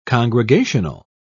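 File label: "congregational", British English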